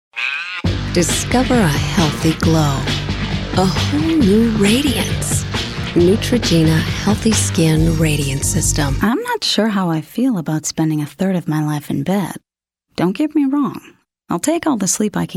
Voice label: Eng, english females

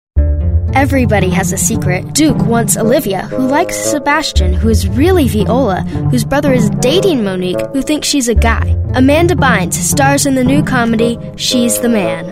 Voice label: Eng Kid

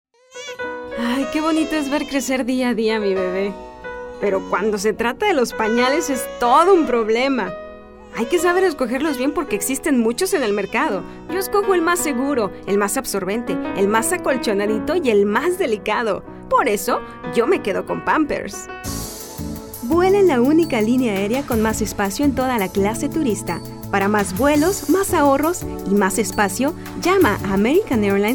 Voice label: Spanish, spanish females

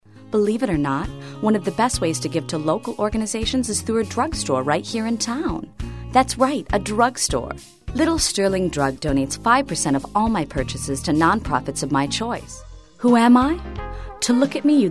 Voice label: English, females

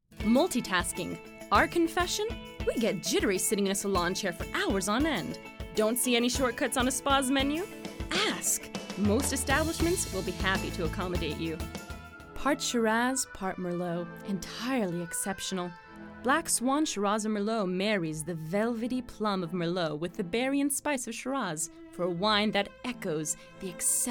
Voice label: English, english females

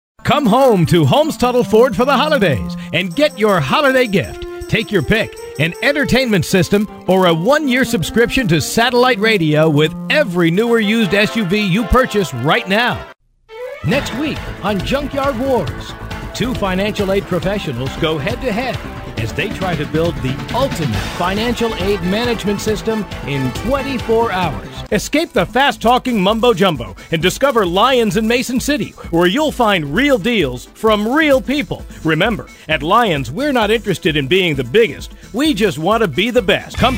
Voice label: English, males

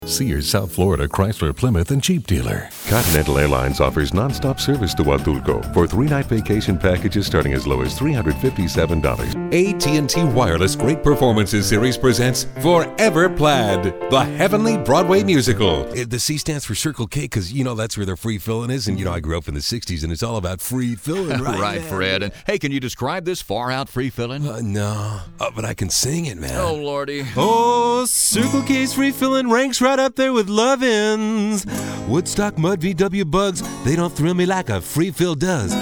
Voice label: Eng, english males

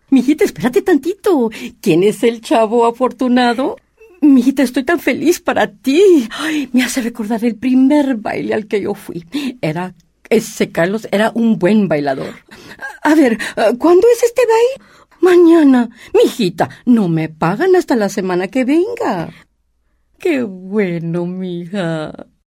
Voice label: Spanish, females

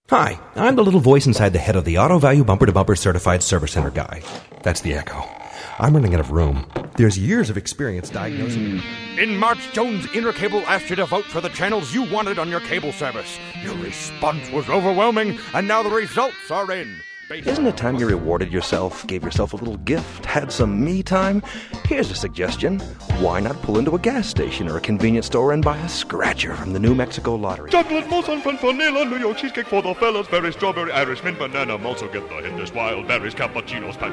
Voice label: Eng, english males

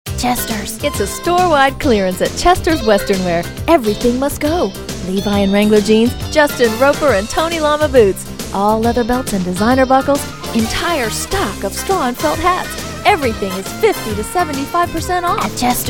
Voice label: english females

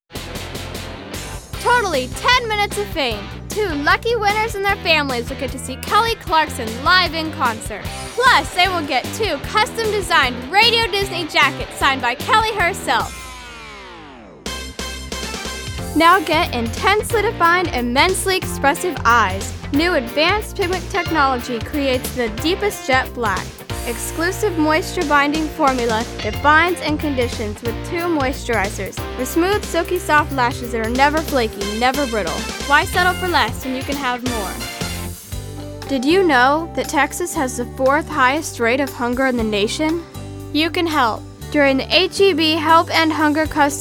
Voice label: Eng Kid, kids

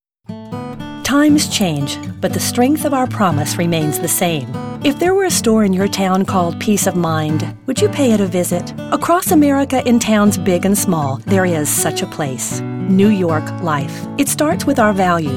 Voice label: Eng, english females

